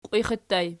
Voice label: "qiwixi", Archi